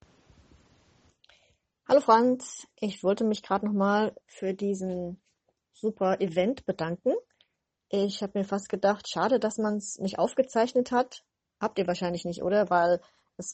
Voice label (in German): Kommentar einer Lehrerin